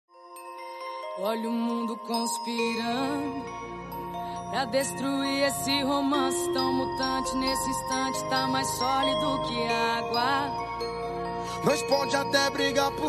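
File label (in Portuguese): aniver namorado fem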